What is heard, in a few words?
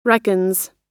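"reckons", US English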